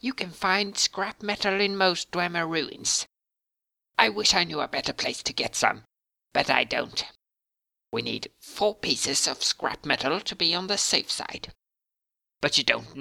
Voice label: bosmer, wood elf voice auditions